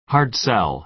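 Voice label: "hard sell", American English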